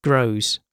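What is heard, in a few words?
"grows", British English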